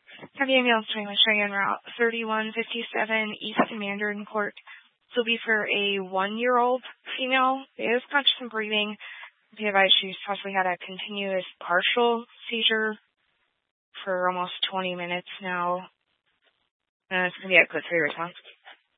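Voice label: Fire Dispatch, Scanner audio recording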